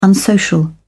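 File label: "unsocial", British English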